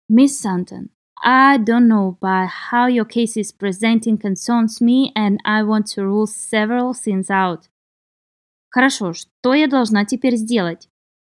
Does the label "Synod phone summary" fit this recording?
No